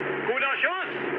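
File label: German player killed enemy mood low, speech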